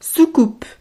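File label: "soucoupe", French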